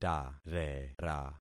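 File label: "tarera", concatenated syllables; Affixing experiment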